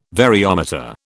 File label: "variometer", British